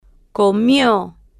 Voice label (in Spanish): "co-mio", Spanish